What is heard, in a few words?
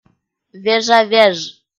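"вежа-веж", Komi, female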